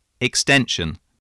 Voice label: "extension", voice